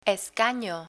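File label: "escano", Spanish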